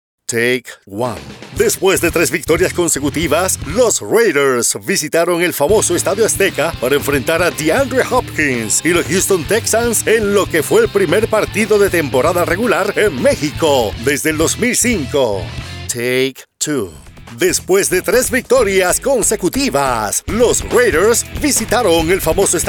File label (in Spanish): spanish latin american promos